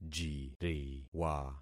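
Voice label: "jiriwa", concatenated syllables; Affixing experiment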